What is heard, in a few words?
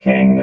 "king", robot